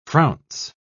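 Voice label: "frounce", British English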